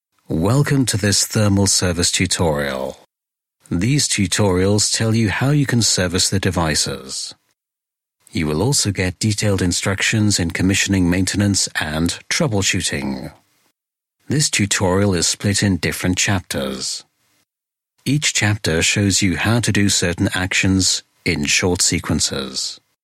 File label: elearning, english voiceovers